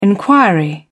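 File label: "inquiry", British English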